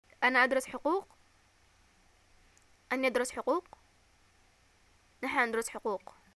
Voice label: studying law, arabic